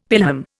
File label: "pelham", Indian; Dictionary